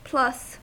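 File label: "plus", American English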